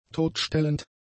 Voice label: "totstellend", German; adjectives

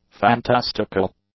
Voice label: "fantastical", english; adjectives

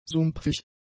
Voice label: "sumpfig", German; adjectives

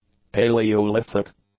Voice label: "paleolithic", English; adjectives

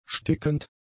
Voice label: "stickend", German; adjectives

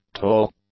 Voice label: "tall", English; adjectives

